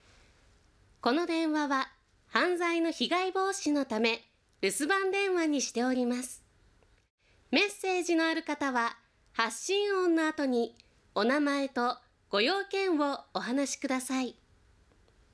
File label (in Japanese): rusu narration; 通常バージョン; 留守番電話